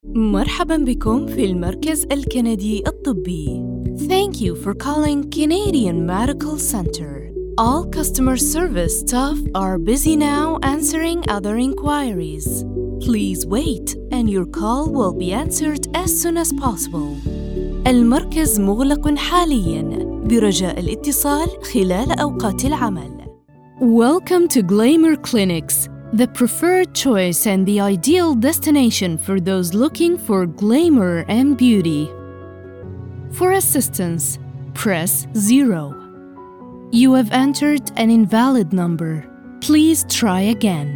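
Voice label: arabic interactive voice response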